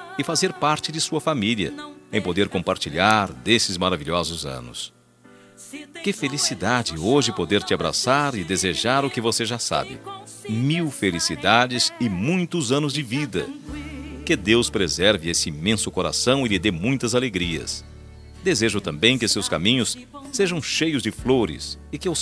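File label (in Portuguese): Aniversário Evangélico Sogra Masculino, telemensagem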